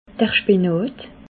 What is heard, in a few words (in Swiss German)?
"spinat", Alsace